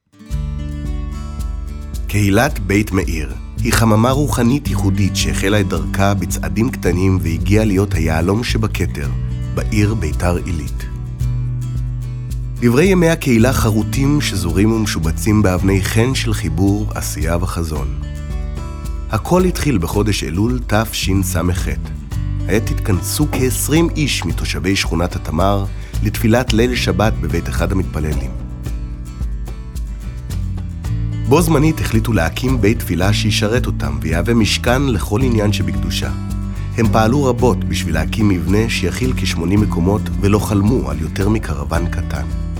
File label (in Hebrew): קריינות בעברית